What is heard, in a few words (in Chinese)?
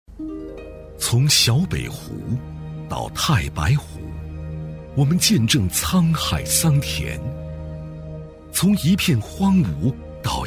男 景区宣传片 磁性抒情; 太白湖; 男190-高端磁性 质感磁性